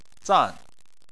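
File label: "zan", Mandarin Chinese, fourth tone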